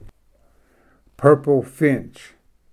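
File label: "purple finch", English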